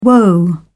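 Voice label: "whoa", British English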